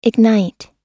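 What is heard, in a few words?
"ignite", American English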